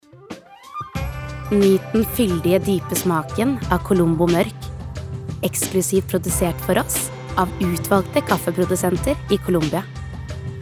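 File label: Norwegian soft sell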